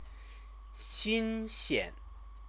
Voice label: "xinxian", Chinese; Chinese lessons